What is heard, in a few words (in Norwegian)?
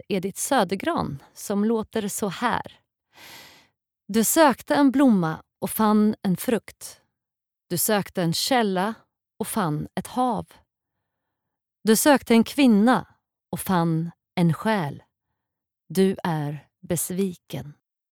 no svensk